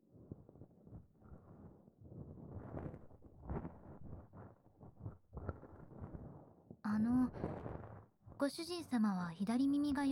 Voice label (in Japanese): 左の耳かきをしていきましょう SE無, 暖炉音無し; Track8 次は左の耳かきをしていきましょう。